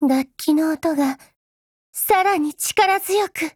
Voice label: LEVELUP JP; undline